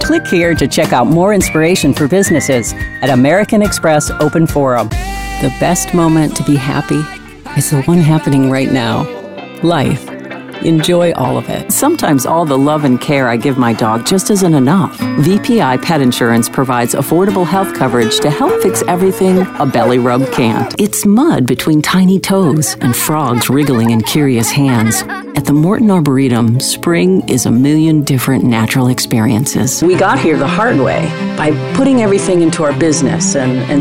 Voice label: commercial